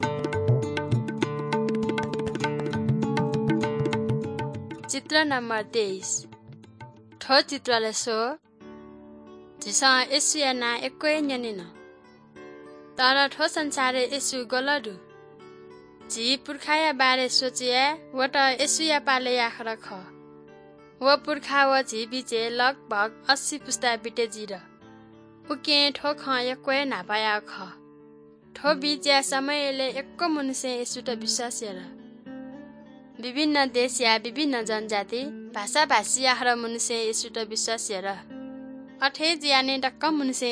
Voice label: good news newari balami